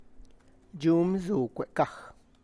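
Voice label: The basket is next to the box, Tlacochahuaya Zapotec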